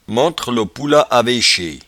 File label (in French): "menttrelopoula", patois jura